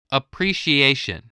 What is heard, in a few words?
"appreciation", English